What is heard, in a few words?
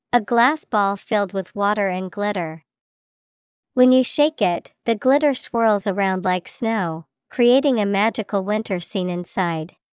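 snow globe, English vocabulary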